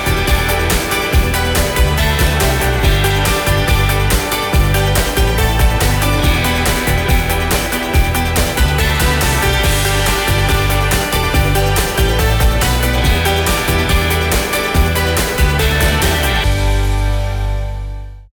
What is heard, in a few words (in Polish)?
reklama mokka